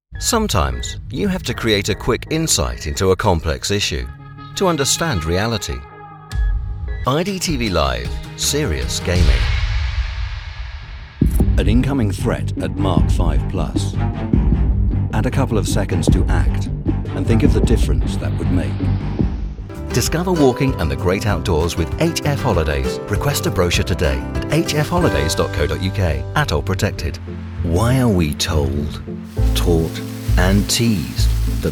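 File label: Commercial, British Male Voice Over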